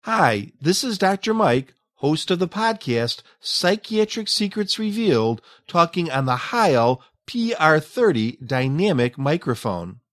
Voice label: Heil PR30